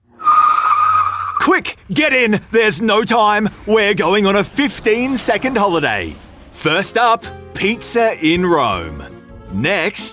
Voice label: Commercials; home studios